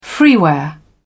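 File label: "freeware", British English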